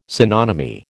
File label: "synonymy", American